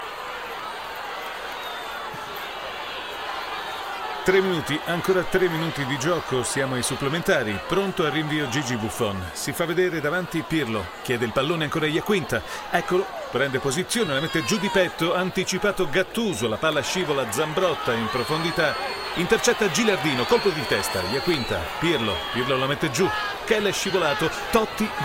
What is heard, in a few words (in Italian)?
sport telecornaca; demo